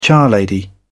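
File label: "charlady", British English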